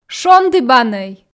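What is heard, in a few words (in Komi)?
"шондібанӧй", Komi, female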